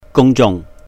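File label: "gùng jung", Cantonese; public; 公眾; holidays and festivals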